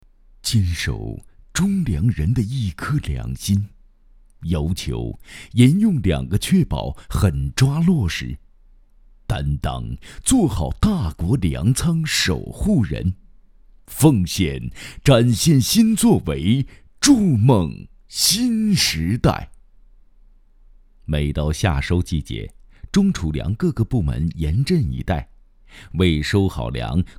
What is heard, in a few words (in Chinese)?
男 感动人物风格